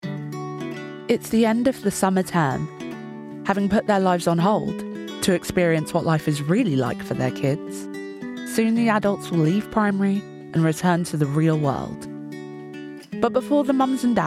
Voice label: DOCUMENTARY